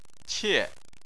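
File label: "qie", Chinese, tone 4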